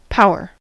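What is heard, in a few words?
"power", American English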